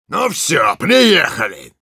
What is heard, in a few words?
jeers, ru; Engineer